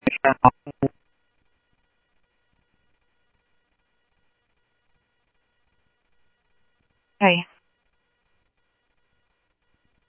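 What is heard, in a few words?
Sheriff West County, scanner audio trunk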